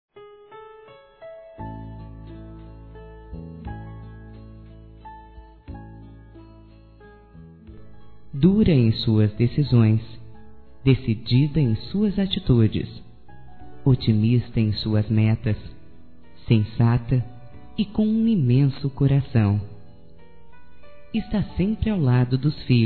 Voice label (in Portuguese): MÃE F, Telemensagens, Dia das Mães; Telemensagens 2017